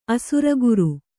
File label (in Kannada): "ಅಸುರಗುರು", kannada